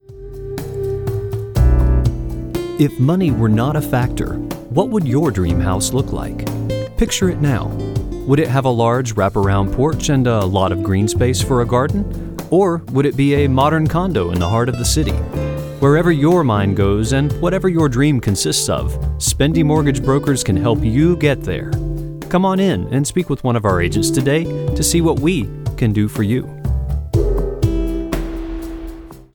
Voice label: Real Estate VO